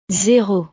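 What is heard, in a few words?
"zero", French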